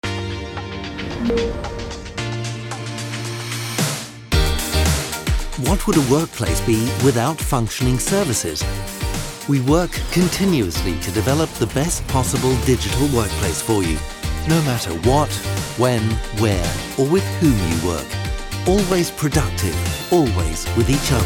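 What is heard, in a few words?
English; Sprecher in Berlin; telekom workplace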